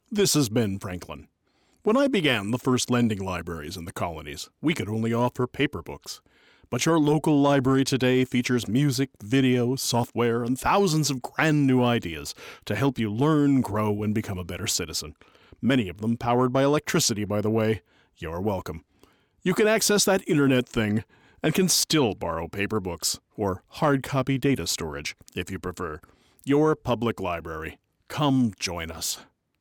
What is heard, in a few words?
PSA Vocal; LIBRARY PSA; A Founder speaks; Ben Franklin